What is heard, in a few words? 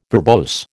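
"verbose", American English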